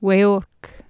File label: "weorc", Anglo Saxon